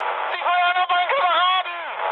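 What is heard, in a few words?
German player friendly fire mood high, speech; War Thunder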